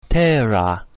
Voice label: "terra" English